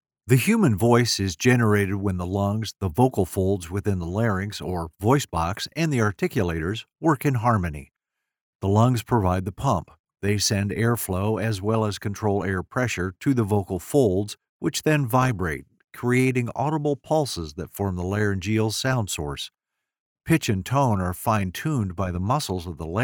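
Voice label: medical narration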